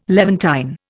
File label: "Levantine", Indian